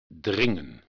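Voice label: "dringen", Dutch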